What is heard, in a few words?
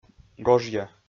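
"гожъя", Komi, male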